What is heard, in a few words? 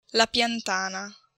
"piantana", Italian